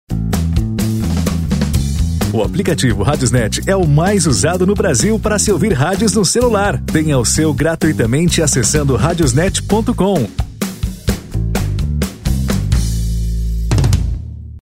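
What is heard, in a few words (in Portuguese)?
O mais usado no brasil, masculino; RadiosNet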